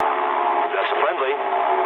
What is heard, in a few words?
eng player friendly fire mood low, speech eng